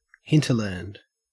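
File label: "hinterland", Australian English; pronunciations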